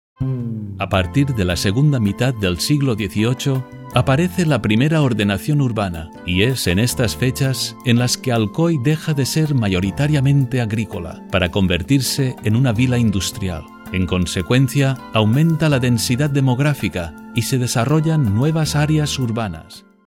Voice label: spanish documentaries